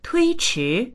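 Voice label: "tui1chi2", Chinese; tuīchí; 推迟; 延期する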